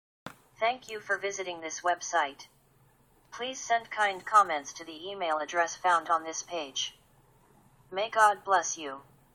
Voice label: Female Voice